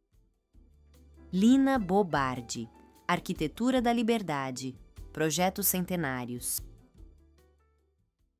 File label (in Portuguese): narracao; portas de bloqueio